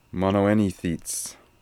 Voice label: "Monoenithits", pronounciations; Fourth Way; Beelzebub